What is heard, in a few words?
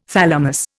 "thalamus", Indian